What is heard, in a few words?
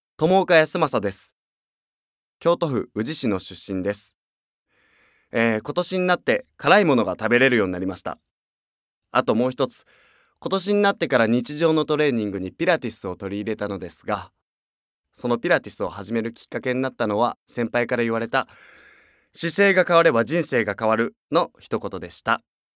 freetalk, male voice